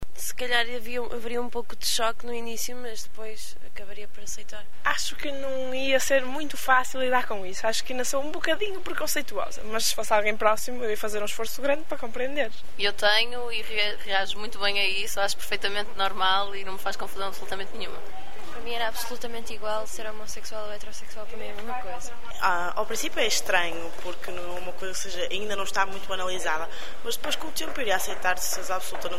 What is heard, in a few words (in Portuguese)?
vox pop jovens